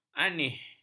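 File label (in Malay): "aneh", Malay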